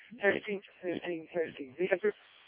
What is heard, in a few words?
decoded speech, parrot